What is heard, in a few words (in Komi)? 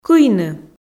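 "кыйны", Komi, female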